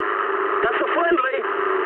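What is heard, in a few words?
English player friendly fire mood medium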